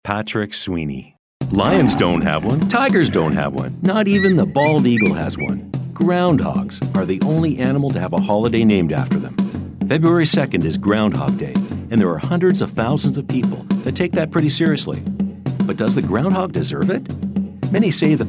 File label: Narration